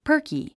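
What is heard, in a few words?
"perky", English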